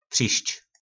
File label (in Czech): "Přišč", nářečí Po našimu; Přijít